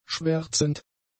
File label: "schwärzend", German; adjectives